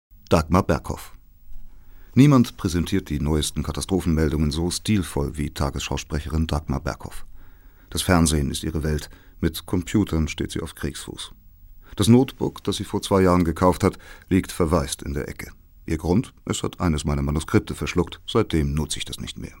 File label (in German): kommentar